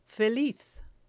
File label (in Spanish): "feliz", Spanish